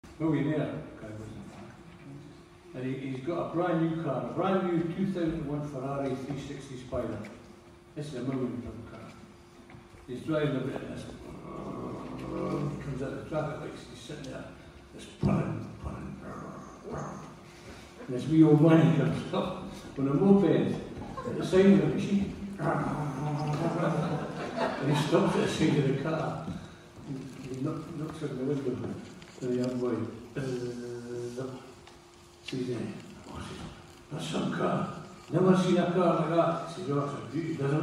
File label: Story; Ferrari V Moped